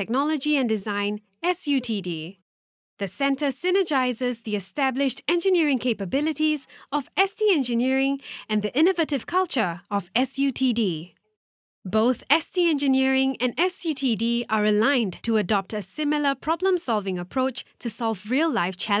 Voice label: Asian English Smart Systems; SUTD